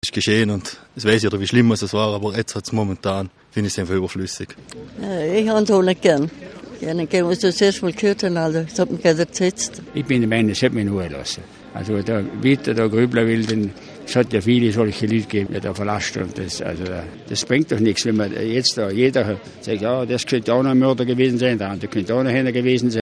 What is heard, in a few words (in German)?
Silbertal Stimmen; NS Geschichte Gedenkplatz